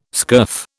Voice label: "scurf", British